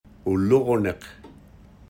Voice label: "Olgoonik", Iñupiaq, Wainwright; (ool-rgoo-nikh); Olgoonik Corp. Inc.